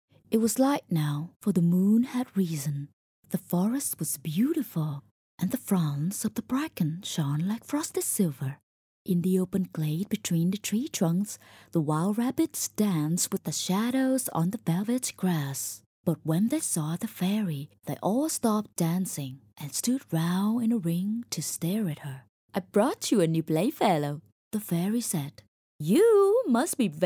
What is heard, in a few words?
foreigner